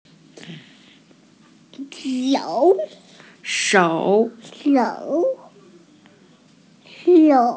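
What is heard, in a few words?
"shou3", Mandarin Chinese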